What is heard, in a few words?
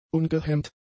"ungehemmt", German; adjectives